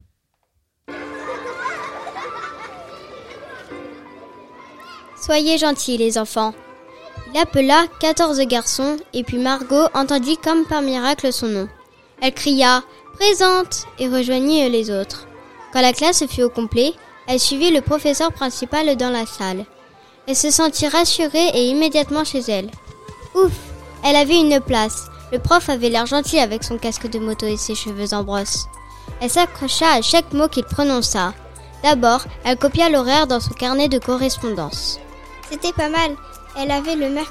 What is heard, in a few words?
podcast